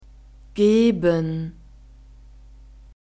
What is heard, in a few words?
"geben", German